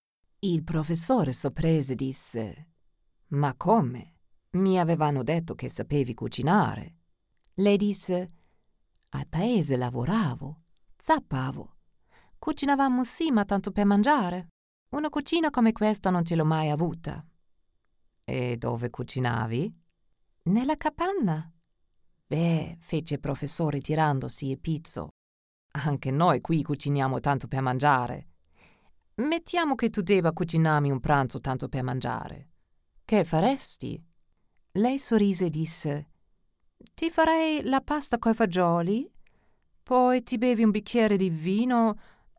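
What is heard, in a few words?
Italian dynamisch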